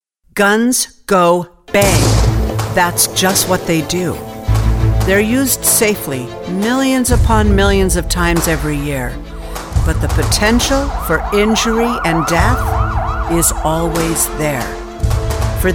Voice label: PSA Strong outdoors commanding low tone, Commercial Voiceover